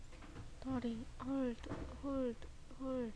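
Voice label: "hold", spoken word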